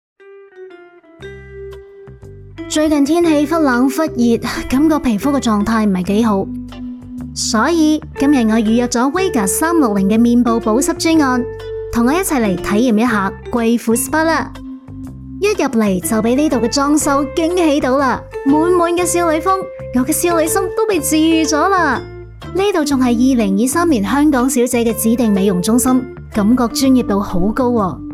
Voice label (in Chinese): 粤语 探店; 养生体验馆